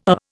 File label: "err", Indian